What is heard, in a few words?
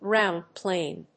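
"ground plane", English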